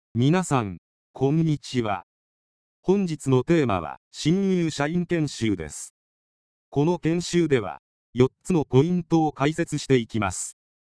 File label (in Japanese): 波形接続型音声合成方式による男性の声, 研修男性イメージ; みなさん、こんにちは。本日のテーマは新入社員研修です。; この研修では、4つのポイントを解説していきます。; 近年の音声合成ソフト